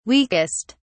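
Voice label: "weakest", British English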